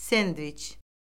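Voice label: "sendviç", Azerbaijani; Orfoqrafiya Lüğəti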